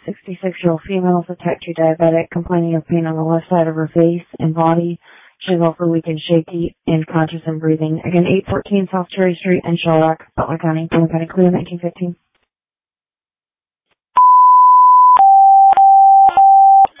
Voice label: Waverly Ambulance, dispatch